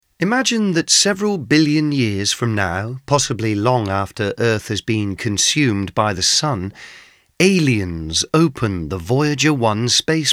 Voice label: Audiobook; The Musical Mind